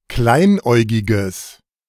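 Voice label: "kleinäugiges", German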